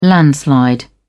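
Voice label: "landslide", British English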